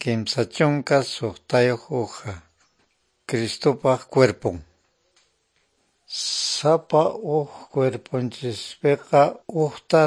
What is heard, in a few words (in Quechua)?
good news quechua norte de bolivia apolo